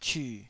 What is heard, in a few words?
"cyu3", Taiwanese syllable